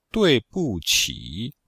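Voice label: "dui4bu4qi3", Chinese; Cours de Chinois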